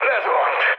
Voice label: aircraft destroyed, speech hun; War Thunder